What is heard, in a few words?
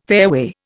"fairway", Indian